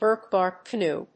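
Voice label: "birchbark canoe", English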